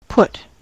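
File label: "put", US English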